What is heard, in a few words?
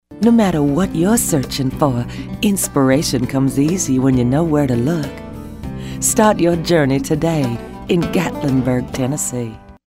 mature southern